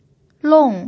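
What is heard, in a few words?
"long", fourth tone, Mandarin Chinese pinyin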